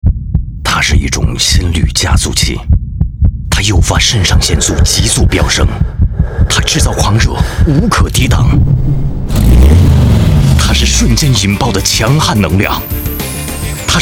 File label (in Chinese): 男 广告; POLO GTI